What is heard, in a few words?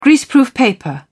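"greaseproof paper", British English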